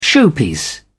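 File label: "showpiece", British English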